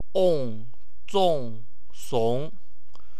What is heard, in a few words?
"ong", wu chinese